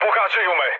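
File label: Serbian aircraft damaged mood med, speech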